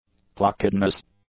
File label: "placidness", english; adjectives